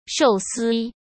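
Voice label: "寿司", Mandarin Chinese; chinese flashcards; hsk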